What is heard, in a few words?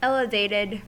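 "elevated", US English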